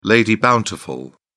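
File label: "lady bountiful", British English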